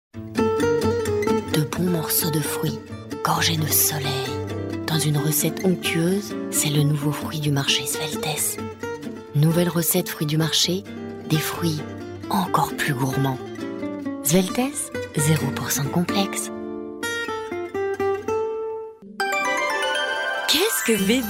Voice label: Fransizca Kadin

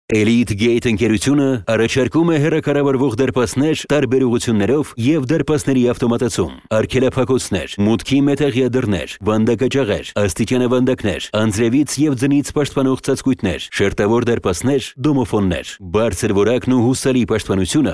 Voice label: Ermenice Erkek; seslendirme

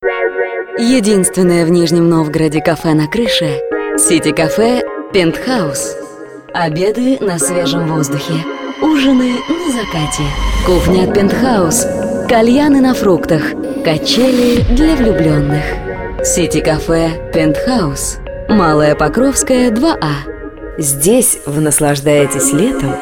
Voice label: Rusca Kadin, rusca seslendirme; kadin sesler

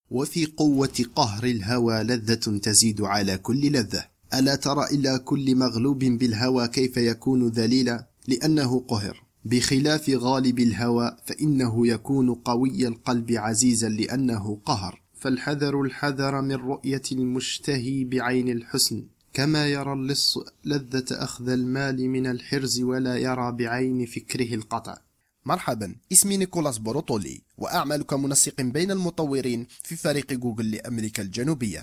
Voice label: Arapca Erkek Klasik Arapca Cezayirli; erkek sesler; seslendirme

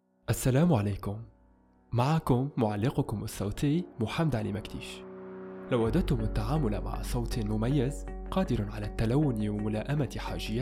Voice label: klasik arapca tunus; erkek sesler; seslendirme

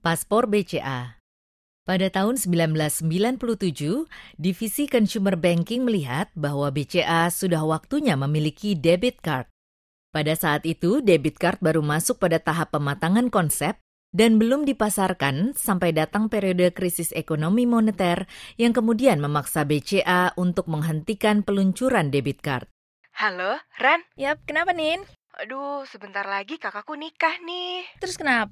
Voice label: Endonezce Kadin; seslendirme

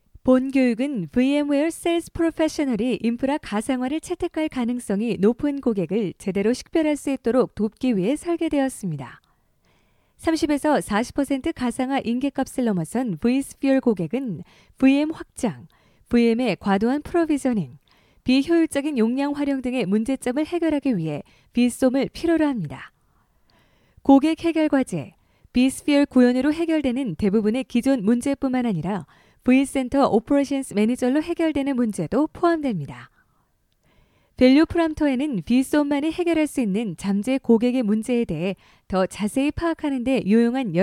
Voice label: Korece Kadin

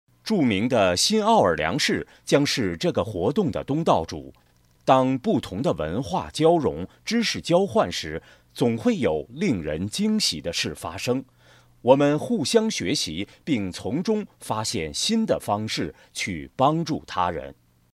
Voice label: Cince Erkek Mandarin Cin Simplified; pekin seslendirme

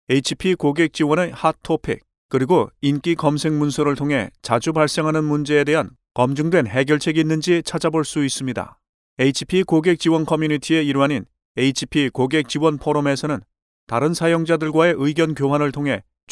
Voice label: Korece Erkek, seslendirme; erkek sesler

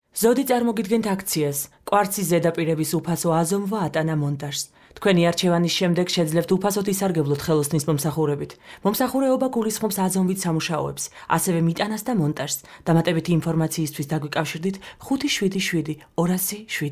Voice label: Gurcuce Kadin; seslendirme

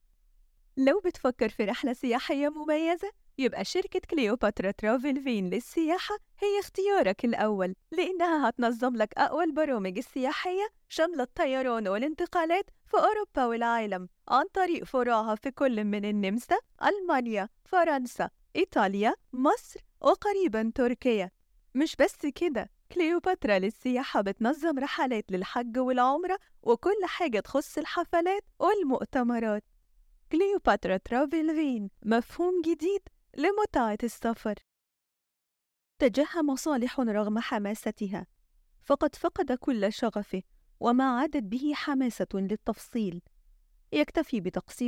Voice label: Arapca Kadin klasik arapca misir; kadin sesler; klasik arapca seslendirme

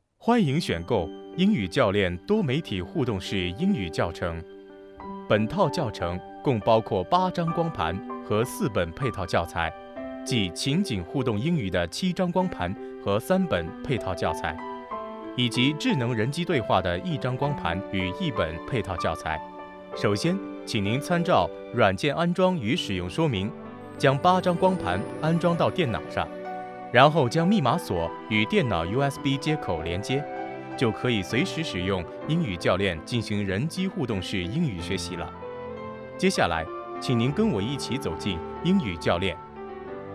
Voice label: Cince Erkek Mandarin Cin Simplified; pekin seslendirme

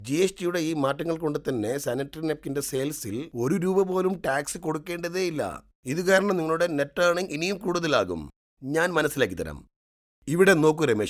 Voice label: Malayca Erkek Handsome Malezya, seslendirme; erkek sesler

